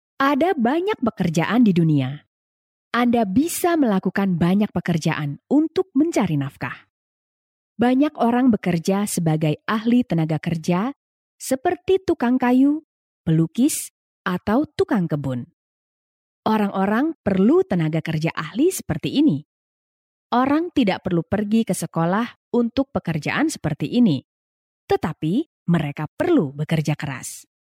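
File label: Indonesian, female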